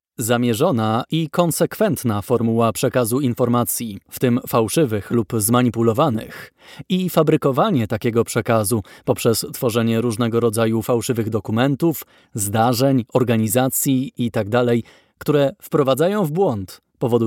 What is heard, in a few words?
polish voiceover e-learning